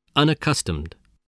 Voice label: "unaccustomed", English spelling voice